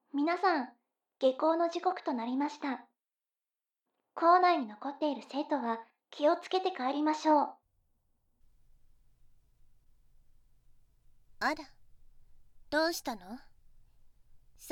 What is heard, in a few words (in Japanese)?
罵倒、耳舐め、拘束, ASMR; 麗華のお仕置き「射精管理宣言」